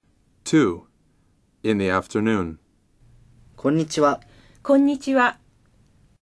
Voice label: Dialog